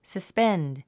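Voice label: "suspend", English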